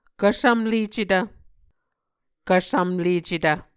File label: "kvsvmlecetv", Muscogee